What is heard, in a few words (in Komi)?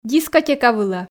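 "дискотека вылӧ", Komi, female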